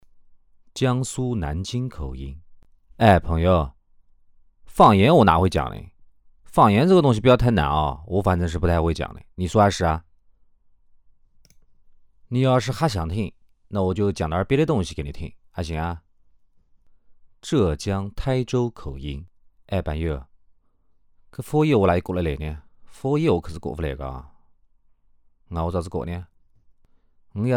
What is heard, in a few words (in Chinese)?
台州方言